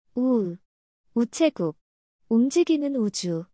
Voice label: speech wu, korean